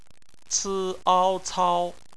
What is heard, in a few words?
"cao", Chinese; bpmf